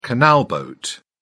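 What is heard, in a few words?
"canal boat", British English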